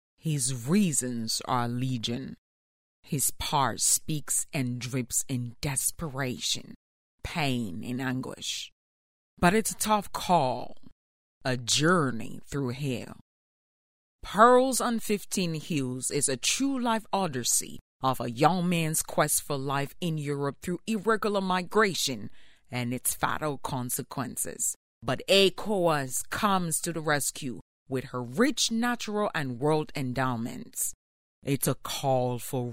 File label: English voice